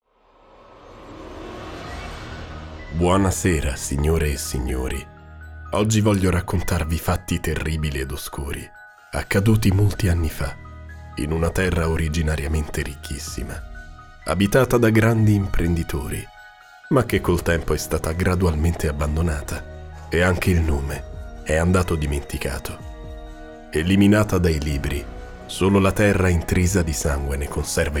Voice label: VOICE M